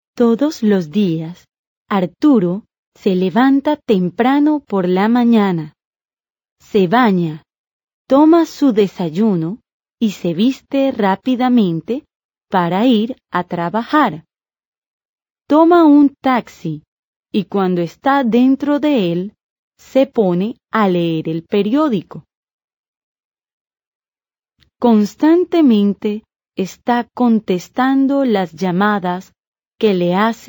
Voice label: Dialogue, Spanish